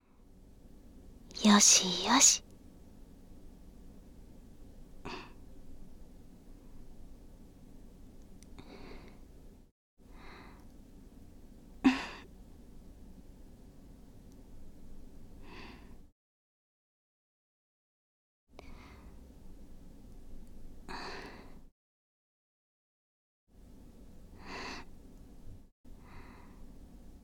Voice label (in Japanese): 左_声のみ_ノイズ除去なし; ごほうびたいむ